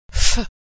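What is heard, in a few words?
sound "f", American English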